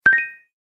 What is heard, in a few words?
samsung galaxy note voice success